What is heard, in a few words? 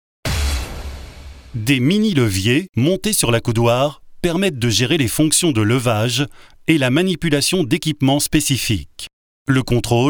EXPLAINER; FENWICK